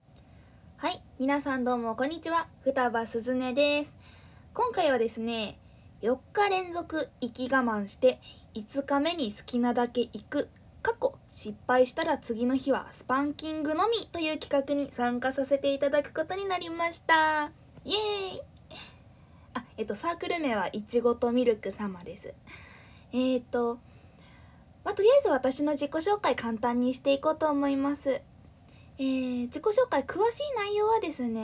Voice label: 自己紹介, ASMR; オナニー実演

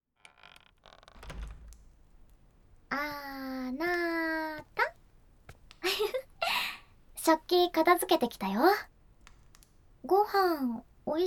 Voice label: 魔法マッサージ, ASMR; SEあり; 『異世界マッサージ』～エルフのお姉さんと新婚いちゃいちゃマッサージ～

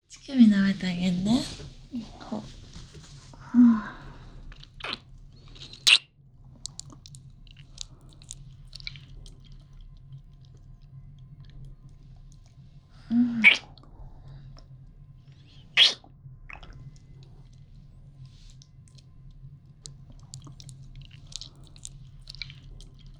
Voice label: 乳首を舐めてもらう, ASMR

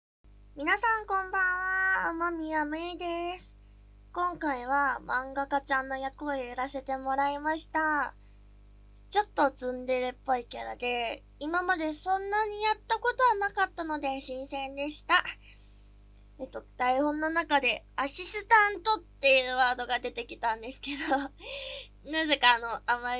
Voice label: フリートーク, ASMR; クラスの美少女漫画家の資料にされちゃいます! ～お手伝いの報酬はもちろん中出しセックス～

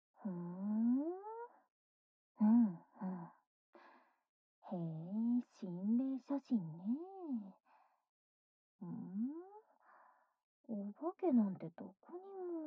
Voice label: 「お姉ちゃんの添い寝」, ASMR

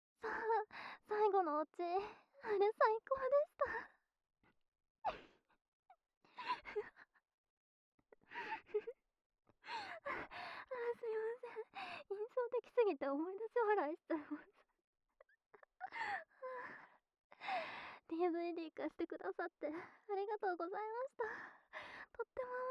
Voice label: 電話でエッチな夢の話(左)

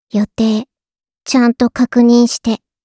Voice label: カレンダー（予定）通知ボイス; 春日野穹

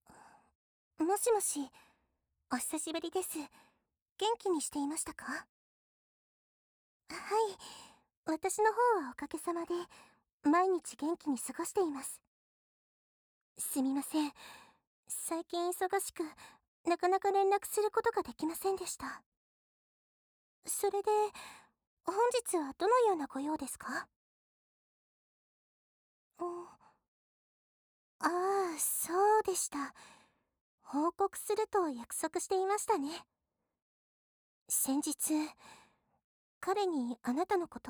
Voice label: 彼氏視点 ボイスオンリー, ASMR; NTR報告; バイノーラル

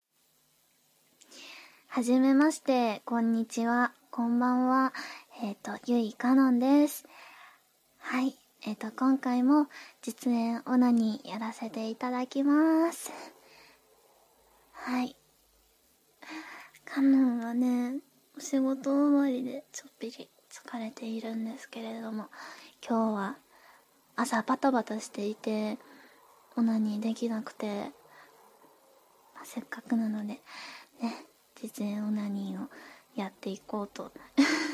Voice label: 淫語, ASMR

